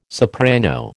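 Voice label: "soprano", American English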